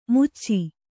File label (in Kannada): "ಮಚ್ಚು" Kannada